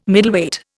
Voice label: "Middleweight", Indian